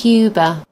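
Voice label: "cuba", British English; gcompris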